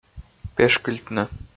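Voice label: "пешкыльтны", Komi, male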